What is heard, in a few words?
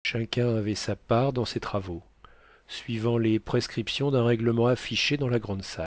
french; COQUI-STT